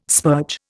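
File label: "spurge", Indian English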